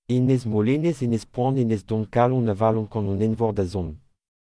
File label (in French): Prononciation Enez Molenez